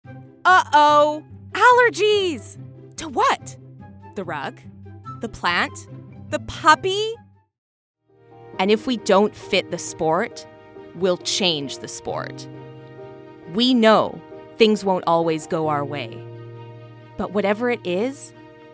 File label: female English neutral North American accent compilation; canadian